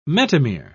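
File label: "metamere", English, British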